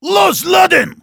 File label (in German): Heavy activate charge, de; Los, laden!